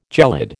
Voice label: "gelid", American

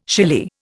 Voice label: "shily", Indian

